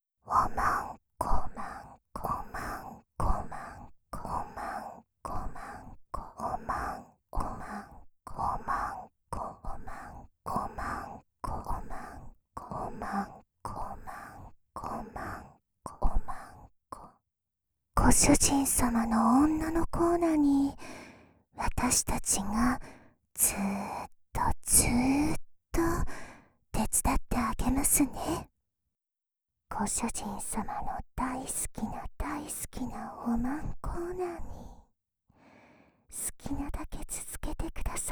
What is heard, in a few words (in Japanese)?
ひたすら淫語囁き; メスイキループ; ひたすら淫語囁きでアナニーサポート